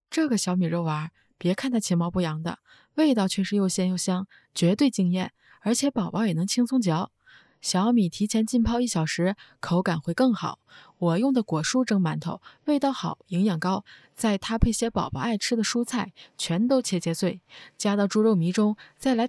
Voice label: 食谱视频配音

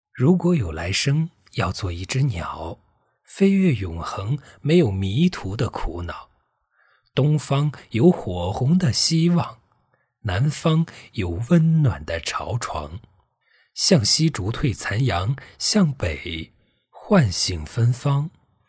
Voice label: 情感类

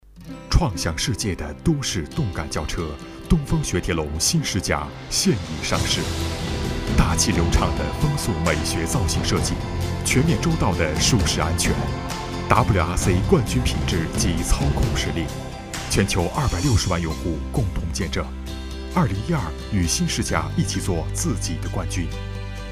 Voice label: 广告类 汽车

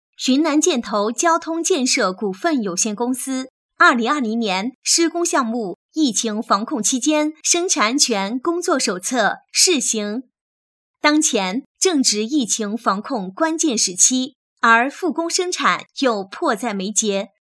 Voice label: 广播 工作手册讲解; 疫情

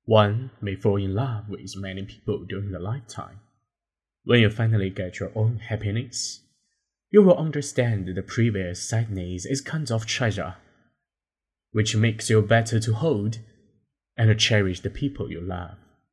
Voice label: 英文试音

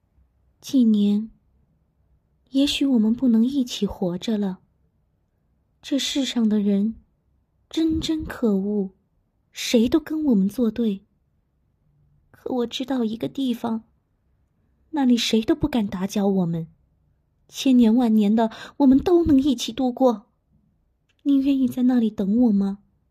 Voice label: 柔情女声

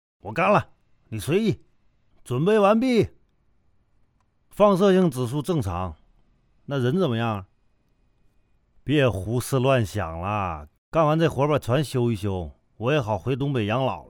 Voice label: 方言类东北话大叔

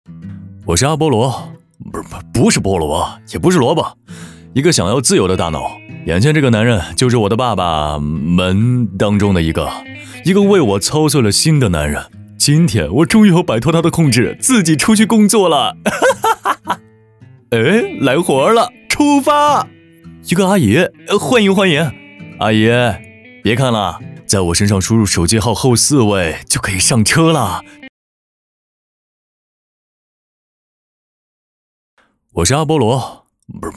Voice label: 诙谐风趣; 百度阿波罗自动驾驶

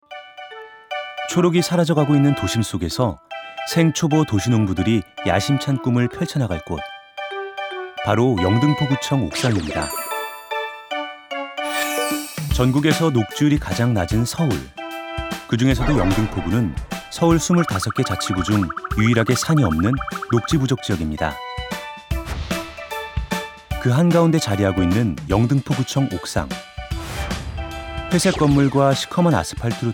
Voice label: 外籍韩语广告轻松自然

